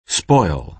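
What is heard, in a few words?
"spoil", American English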